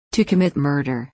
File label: to commit murder us; Crime and punishment